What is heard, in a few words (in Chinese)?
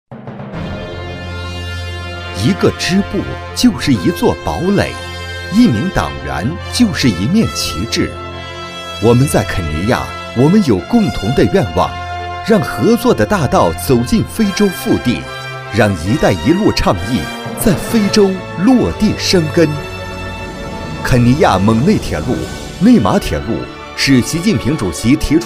男 党建类样音